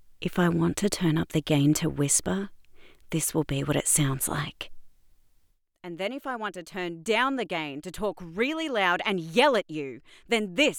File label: VO Booth Raw Sample Melbourne